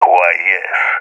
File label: agree, balkan; balkan epic